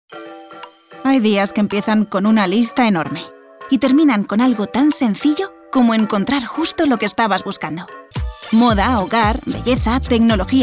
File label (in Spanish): generica amable